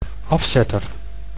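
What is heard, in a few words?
"afzetter", Dutch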